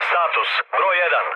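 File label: Serbian chat; War Thunder; speech srb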